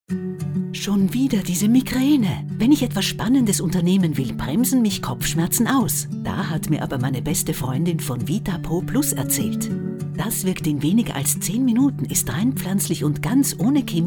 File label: Voice Sample SPOT; Vitaproplus